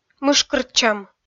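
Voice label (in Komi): "мышкыртчӧм", Komi, female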